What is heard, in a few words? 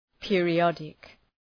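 "periodic", English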